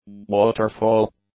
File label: "waterfall", English; nouns